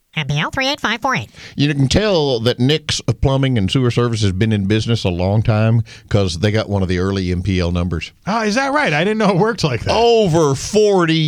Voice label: RADIO AD; nicks plumbing radio ads